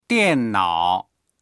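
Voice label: "dian4nao3", Chinese; 电脑; パソコン; dictionary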